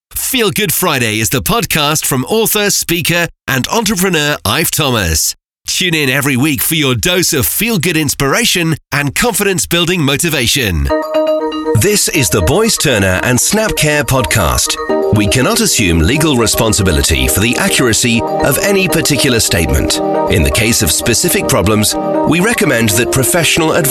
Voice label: english british podcasts